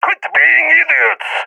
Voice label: scared; vo; agents